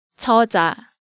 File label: "Jeoja", Korean